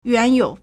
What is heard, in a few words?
"yuan2you3", Chinese